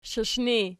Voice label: "shiishni", Archi